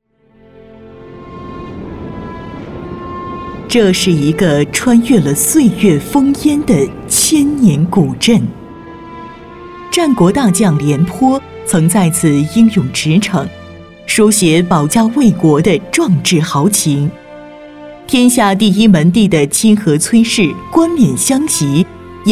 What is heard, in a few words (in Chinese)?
专题大气稳重; 连庄